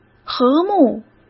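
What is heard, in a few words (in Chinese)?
"和睦", Mandarin Chinese; hsk; chinese flashcards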